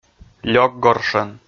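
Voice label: "лёкгоршӧн", Komi, male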